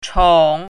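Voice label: "chong3", Mandarin Chinese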